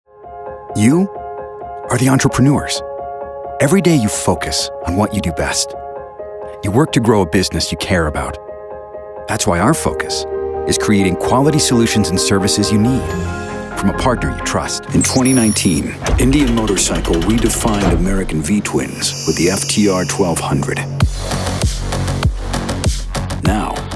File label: commercial men industrial